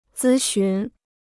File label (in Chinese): "咨询", Chinese